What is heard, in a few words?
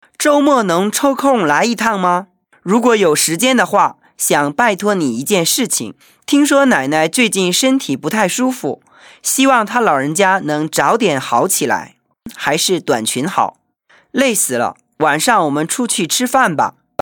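chinese mandarin male narration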